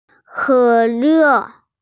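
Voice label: "cola", Mandarin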